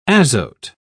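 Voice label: "azote", British English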